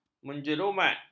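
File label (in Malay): "menjerumat", Malay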